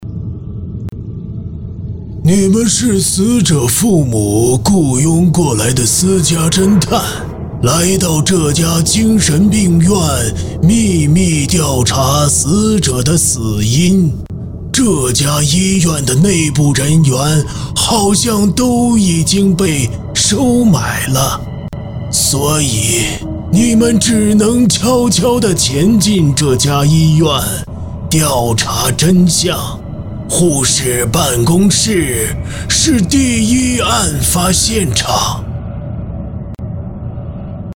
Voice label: 男 模仿 老头 恐怖密室进场前序介绍

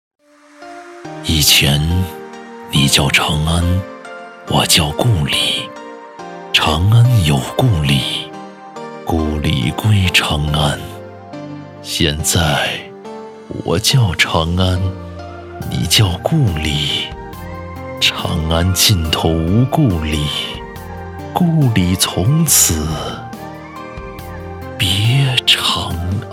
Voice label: 男 抖音旁白; 以前你叫长安

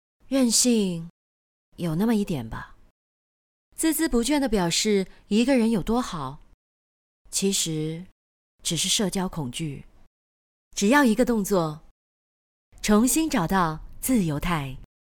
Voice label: 女 独白 高冷少女独白

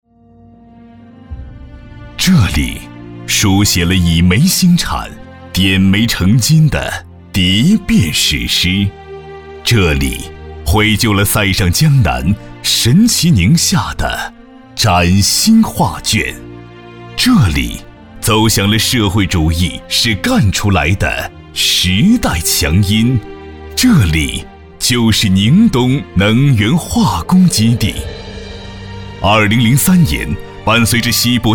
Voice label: 男 宣传片 大气恢弘; 宁东能源化工基地

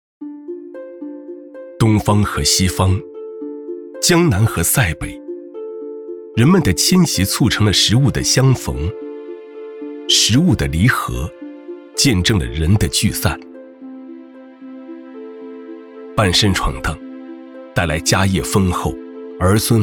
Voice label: 男 纪录片; 舌尖体系纪录片

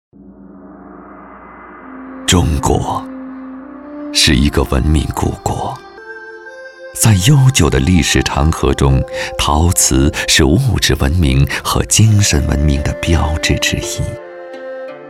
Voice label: 男 专题 陶瓷

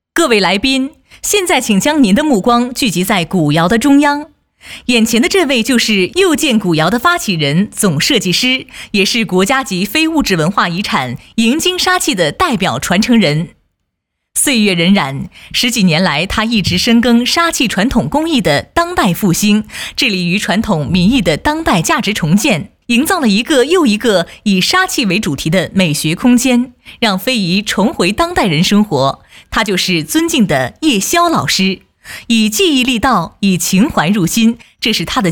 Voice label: 女, 现场感主持; 又见古窑

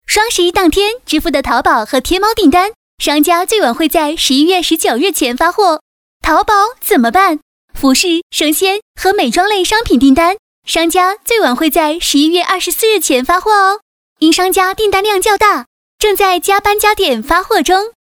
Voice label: 女 广告 双十一订单

